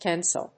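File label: "tensile", English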